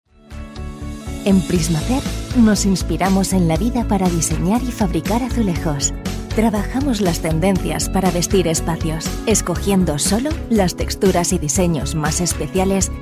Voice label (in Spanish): Femenino